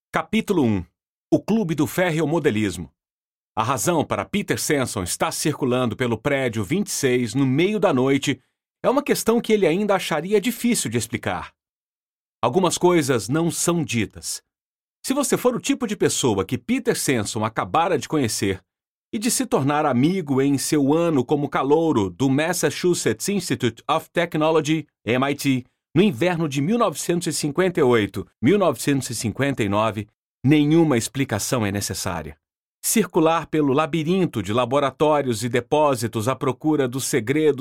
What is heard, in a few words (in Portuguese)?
Repertório Audiobook